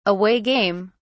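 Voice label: "away game", British English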